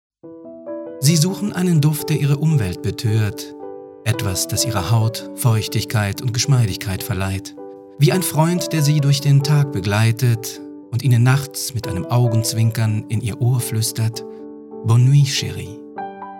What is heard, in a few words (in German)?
Werbung sanft